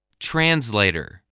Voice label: "translator", voice

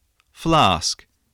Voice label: "flask", spelling

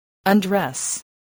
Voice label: "undress", English spelling voice

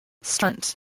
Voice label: "stunt", spelling

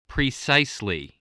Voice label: "precisely", voice, spelling

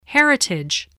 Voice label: "heritage", English, spelling

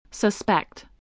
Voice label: "suspect", English

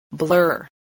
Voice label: "blur", spelling

